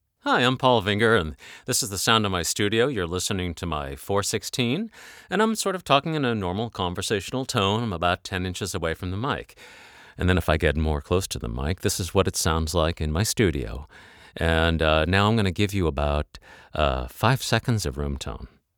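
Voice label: Sennheiser MKH 416 raw, voice actor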